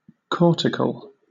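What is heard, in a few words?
"cortical", British English; pronunciations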